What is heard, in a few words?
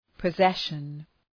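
"possession", English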